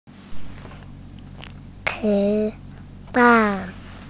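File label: "chi1 fan4", Mandarin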